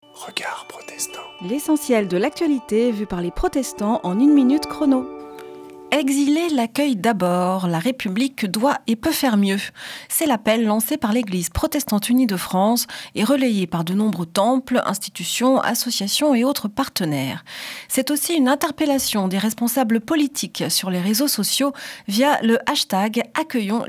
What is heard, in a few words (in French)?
Spot radio; Regards protestants